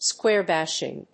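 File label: "square-bashing", English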